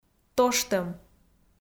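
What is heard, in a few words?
"тоштӧм", Komi, female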